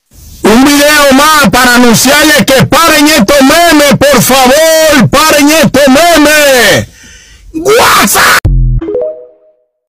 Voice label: earrape

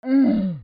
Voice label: oof slowed down; roblox

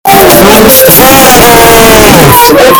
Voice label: bass boosted

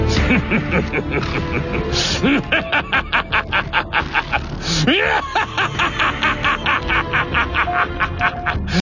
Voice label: laughing english; goku black

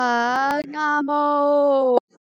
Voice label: omg reverse

